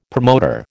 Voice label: "promoter", American